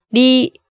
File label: "ri", hiragana